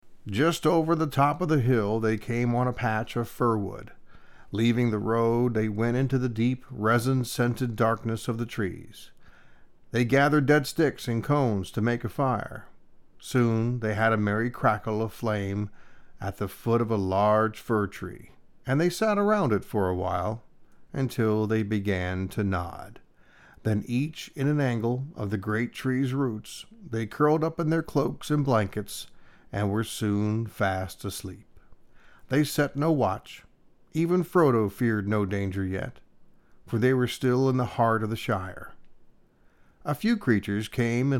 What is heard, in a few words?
Narration; LOTR